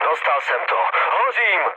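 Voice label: on fire, Czech, mood low; speech; War Thunder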